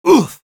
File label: Sniper pain sharp, es